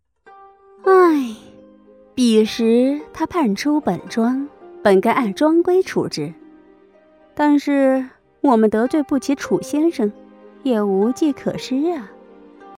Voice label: 少御

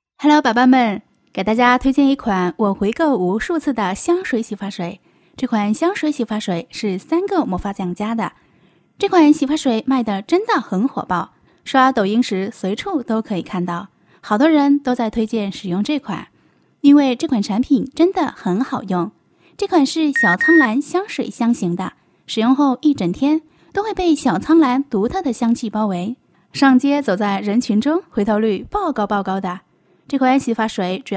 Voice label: 洗发水试音女配

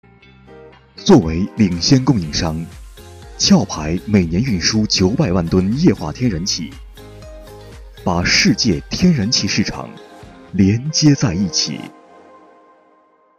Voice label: 轻松; 壳牌

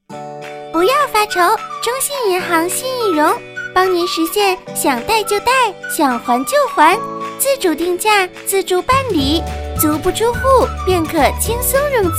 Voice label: 女童; 中信银行

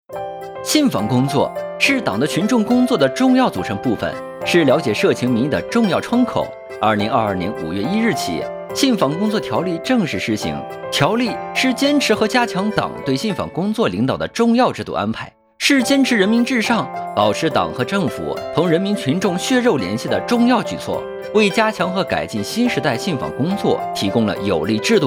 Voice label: 轻松活泼男; 飞碟说

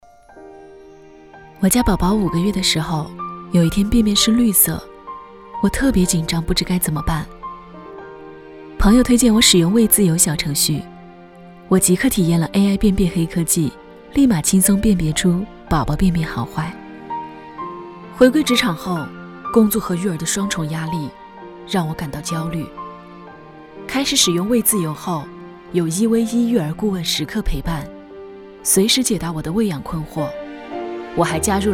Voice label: 走心广告宝宝

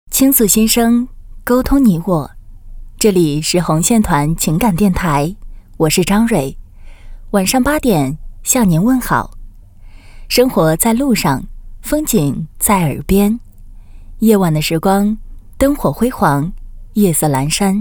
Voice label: 电台有声节目

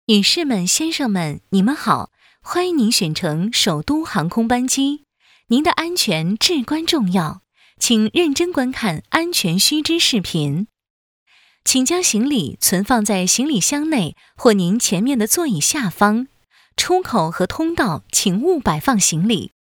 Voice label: 安全须知 女; 首航

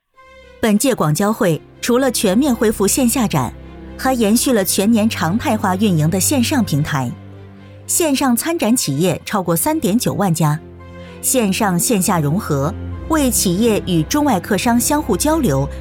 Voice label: 新闻播报 大气 浑厚

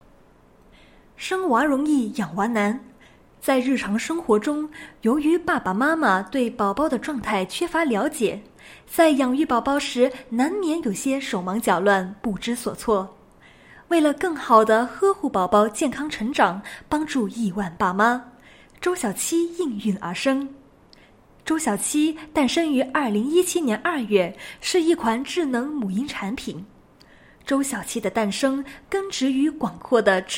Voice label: 智能母婴产品宣传

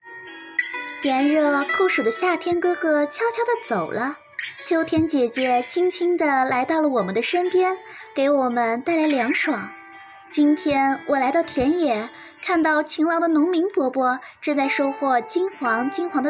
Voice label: 儿童读物; 凉爽的秋天

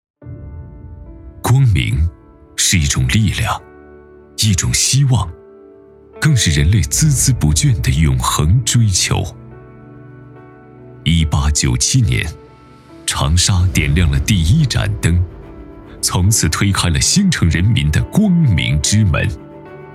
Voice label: 广告类 形象片; 长沙电力; 光明之路

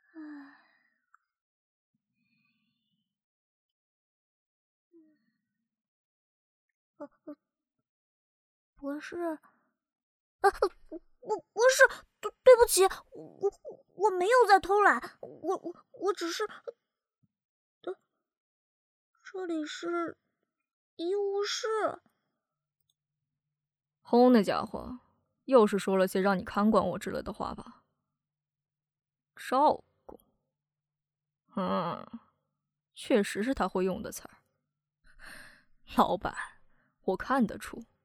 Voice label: 正太 ＆ 少年声线展示

